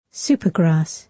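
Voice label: "supergrass", British English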